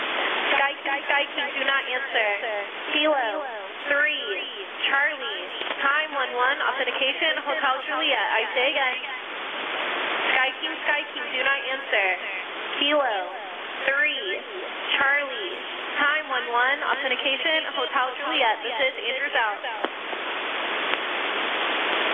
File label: EAM 11175 KHZ skyking